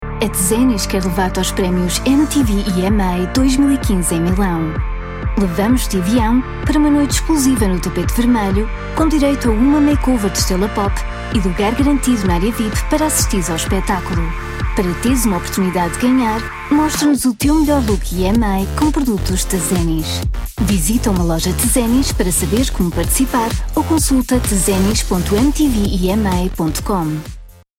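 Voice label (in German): Portuguese, Fremdtake Dynamisch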